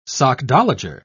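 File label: "sockdologer", British English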